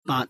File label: cantonese, numbers